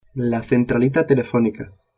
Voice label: "centralita", spanish

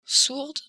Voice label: "sourde", French

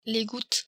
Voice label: "gouttes", french

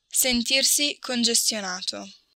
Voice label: "congestionato", Italian

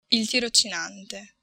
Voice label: "tirocinante", Italian

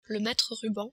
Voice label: "metre", french

